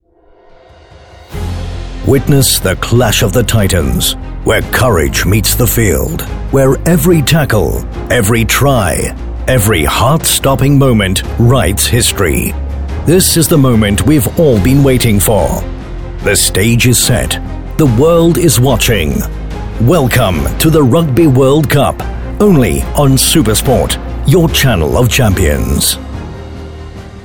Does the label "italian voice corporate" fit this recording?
No